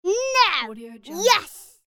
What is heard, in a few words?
angry child saying yes and no